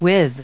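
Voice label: "with", US English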